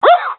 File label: cheer; Counter Strike Model Pack; CS:GO